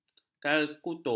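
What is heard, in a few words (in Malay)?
"kalkulator", Malay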